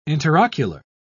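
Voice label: "interocular", British English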